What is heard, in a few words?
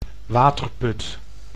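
"waterput", Dutch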